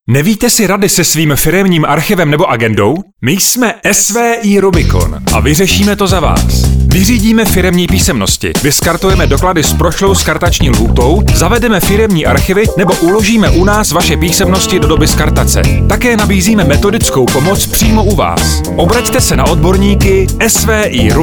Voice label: radio spot